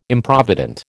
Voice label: "Improvident", American